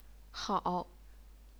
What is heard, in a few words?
"hao3", chinese pinyin